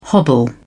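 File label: "hobble", British English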